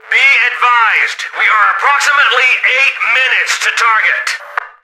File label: radio in transit, soldier; npc; Left 4 Dead 2